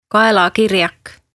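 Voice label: "Kaelkirjak", Estonian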